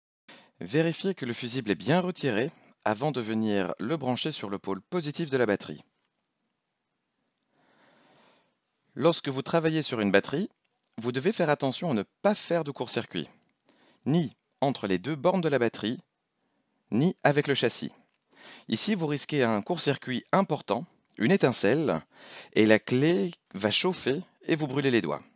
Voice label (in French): Voix française Home studio; Traduction audiovisuelle : doublage, voix off et sous-titrage